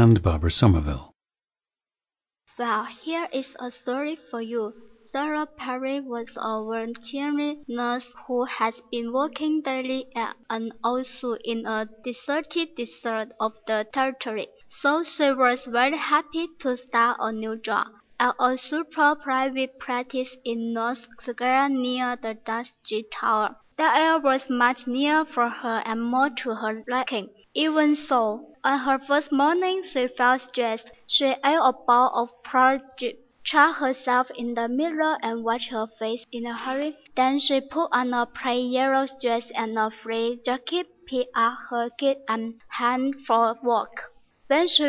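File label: guangxi, International Dialects of English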